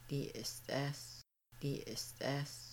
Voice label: Making Bannock I am frying chicken, Kaska

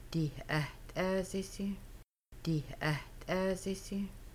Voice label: You might fry chicken, Kaska; Making Bannock

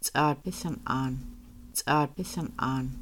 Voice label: Put on your hat, Kaska; Getting Dressed

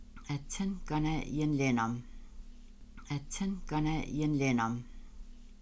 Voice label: Do you like dry meat, Kaska; People Camping

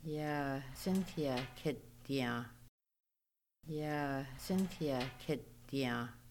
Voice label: What is Cynthia learning, Kaska; Halloween Lesson Part 1

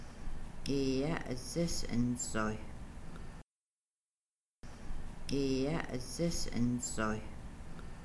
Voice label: Then scrape the hide, Kaska

